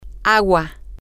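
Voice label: water, Spanish, female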